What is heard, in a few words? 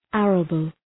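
"arable", English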